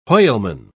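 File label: "whaleman", British English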